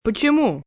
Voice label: Why, Question, foreign, male boy: Russian; VoiceBox